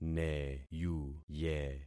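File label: "neyuye", concatenated syllables; Affixing experiment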